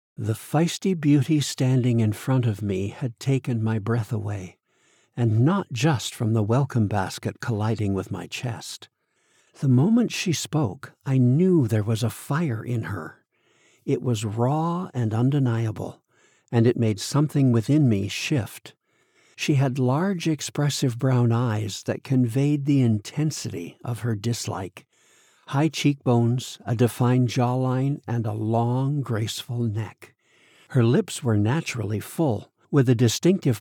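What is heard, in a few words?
Cozy Romance first person male female Dialogue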